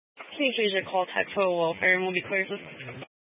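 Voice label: Sheriff Dispatch, Scanner audio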